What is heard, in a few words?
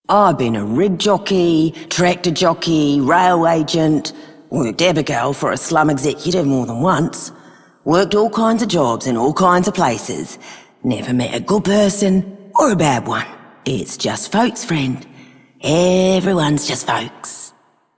Female Rail Agent, Female Two, en; Dialog; Warframe; Solaris Venus